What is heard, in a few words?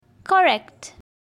correct, en; time